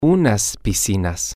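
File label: some pools, Spanish, male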